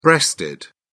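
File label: "breasted", British English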